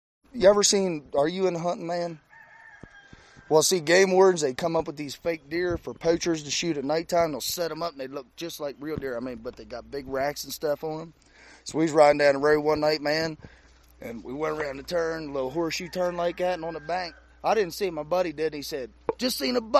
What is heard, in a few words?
US West Virginia WM age 23